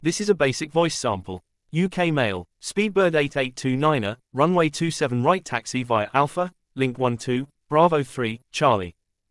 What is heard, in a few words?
UK